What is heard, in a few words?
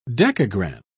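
"dekagram", British English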